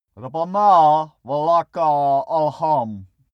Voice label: male pray arabian

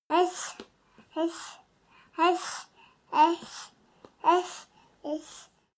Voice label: baby talk count

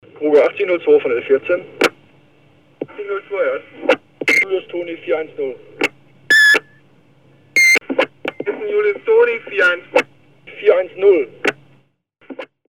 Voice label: walkie talkie

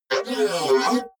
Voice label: robot speech

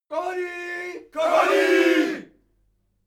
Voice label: military shout german attention

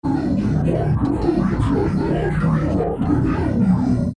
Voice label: creature dark speech

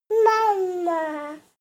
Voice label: baby talk mom

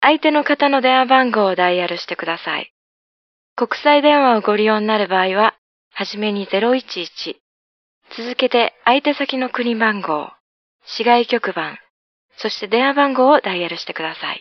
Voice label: indication number japanese

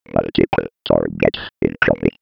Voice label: 90s computer voice

